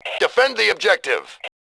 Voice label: objective, male; voice